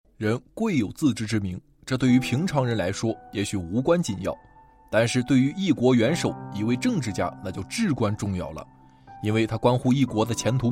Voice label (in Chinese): 铿锵有力